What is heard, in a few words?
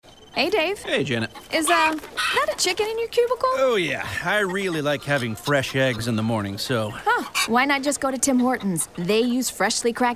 RADIO; Tim Hortons; Office Chicken